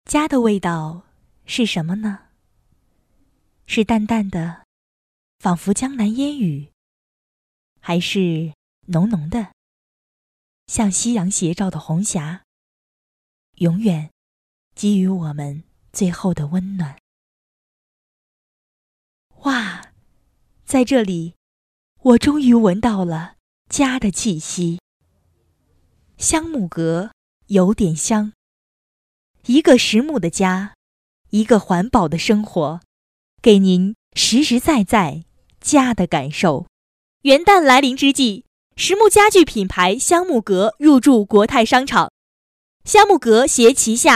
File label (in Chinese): 家具广告